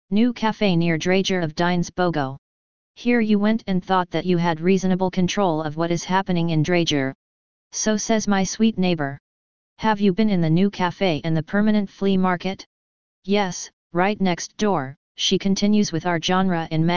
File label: English; Kjosken